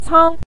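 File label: "cang" neutral tone, Mandarin Chinese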